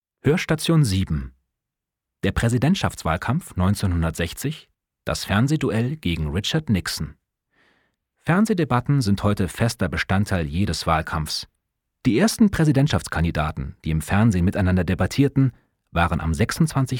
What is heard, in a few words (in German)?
audioguide